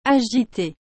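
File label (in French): "agitée", French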